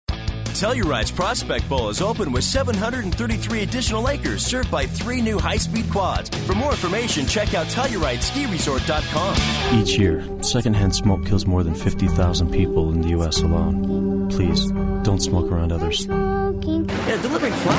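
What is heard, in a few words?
commercial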